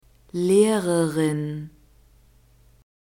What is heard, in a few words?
"lehrerin", german; nouns gender feminine